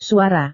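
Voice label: "suara", Indonesian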